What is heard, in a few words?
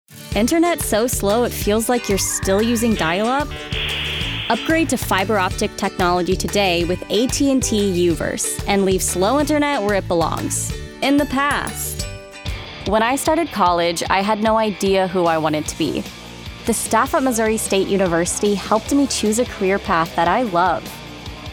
Commercial Demo, voice over